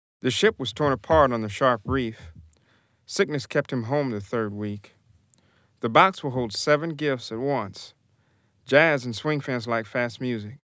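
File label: English, male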